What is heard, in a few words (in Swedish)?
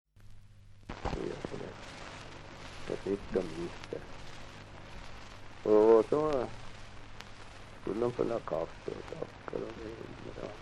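Torsåker Gästrikland, dialekter; Folkminnen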